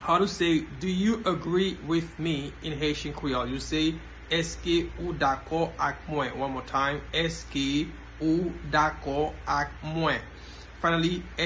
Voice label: Eske ou dako ak mwen, Haitian Creole pronunciation by a Haitian teacher